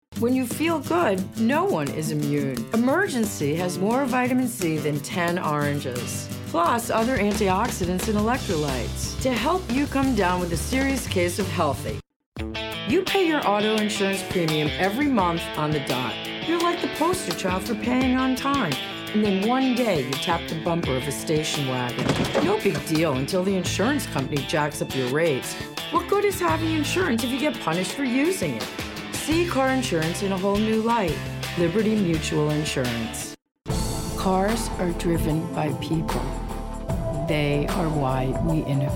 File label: commercial